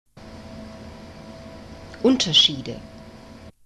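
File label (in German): "Unterschiede", German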